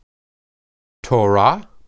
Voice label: "torah", Hebrew